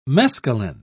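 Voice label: "mescalin", British English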